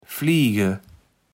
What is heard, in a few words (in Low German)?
"fliege", kaevels platt